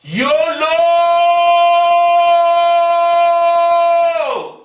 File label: shouting yolo